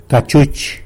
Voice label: "cachuch", tlacolula; baseball cap